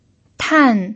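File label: "tan", Mandarin Chinese pinyin, fourth tone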